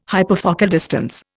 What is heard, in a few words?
"hyperfocal distance", Indian